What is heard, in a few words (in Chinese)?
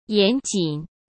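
"严谨", Mandarin Chinese; chinese flashcards; hsk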